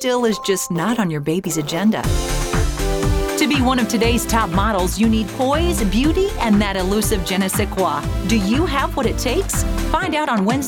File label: Commercial Demo